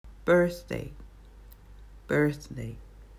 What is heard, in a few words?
"Birthday", English